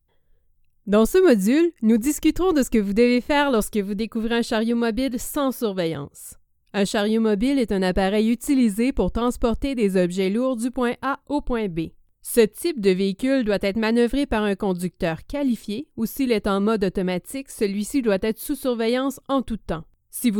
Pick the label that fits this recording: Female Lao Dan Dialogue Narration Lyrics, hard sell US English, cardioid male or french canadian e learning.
french canadian e learning